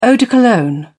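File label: "eau de cologne", British English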